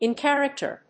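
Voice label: "in character", English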